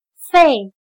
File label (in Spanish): "沸", Chino